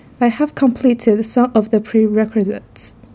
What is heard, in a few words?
interviewee female